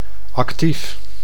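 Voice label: "active", Dutch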